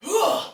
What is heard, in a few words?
Attack, Soldier Female, Battle; Sinecure